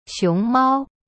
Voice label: "熊猫", Chinese; xiong mao